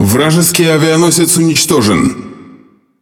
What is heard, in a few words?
Speech Destroy Enemy Aircarrier, RU; World Of Warships